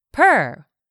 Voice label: "per", English; 3000 words

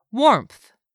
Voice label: "WARMTH", English; 3000 words

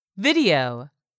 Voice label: "VIDEO", English; 3000 words

